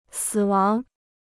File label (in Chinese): "死亡", Chinese